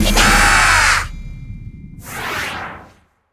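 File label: voice help friend, Japanese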